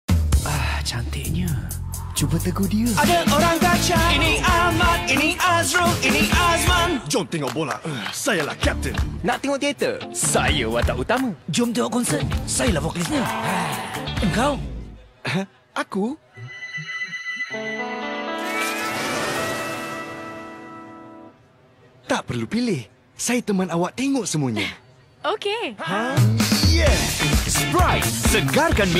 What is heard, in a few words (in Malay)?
Iklan; Sprite